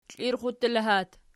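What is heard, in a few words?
stepson, locative, Archi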